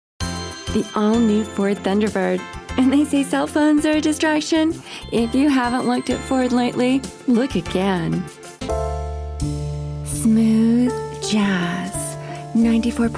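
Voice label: com demo, Commercial, Voice Actress; Voice Demos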